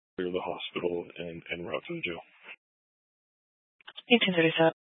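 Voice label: sheriff dispatch radio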